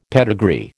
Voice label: "pedigree", American